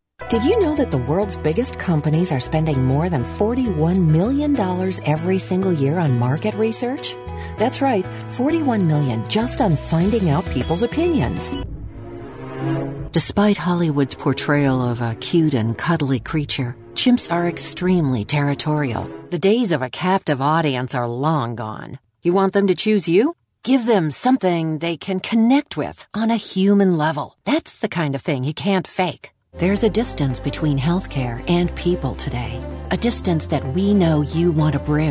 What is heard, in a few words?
Narration